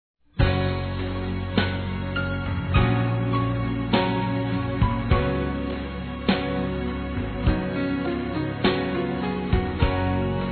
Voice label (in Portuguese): ROMANTICA F; Aniversários; ANIVERSARIO romantico; fem